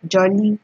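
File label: "jolly", Nigerian Pidgin, female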